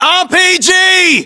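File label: threat rpg, battlechatter British; english; uk; localized english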